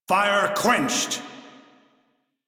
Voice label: Crew Fix Fire, Male; Dialog; en; Warframe; Railjack Crew; Arbiters Hexis

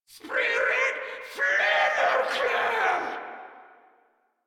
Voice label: Attack Grineer Female; Warframe

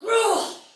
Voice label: Attack Normal Soldier Female, Battle Barks; Sinecure

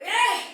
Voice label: Attack Soldier Female, Battle Barks; Sinecure

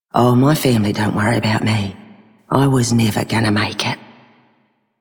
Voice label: female scum; Female Two; en; Dialog; Warframe; Solaris Venus

